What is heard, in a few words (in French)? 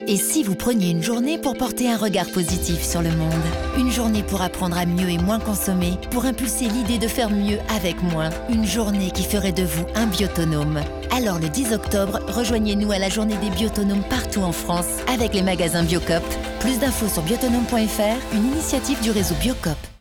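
Concernee passionnee, comédienne voix off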